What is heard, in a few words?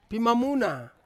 "pimamuna", Ansus; police